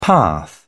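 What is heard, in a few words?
"path", British English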